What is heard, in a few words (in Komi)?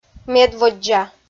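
"медводдза", Komi-Zyrian, female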